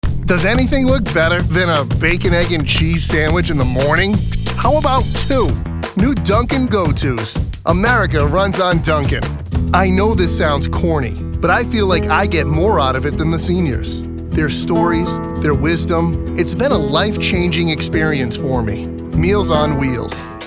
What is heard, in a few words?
Commercial